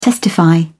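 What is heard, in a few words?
"testify", English, British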